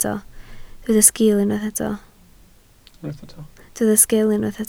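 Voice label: "sgil" Welsh, spoken word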